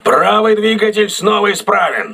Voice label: voice message right engine repaired, crew ships russian